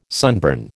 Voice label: "sunburn", American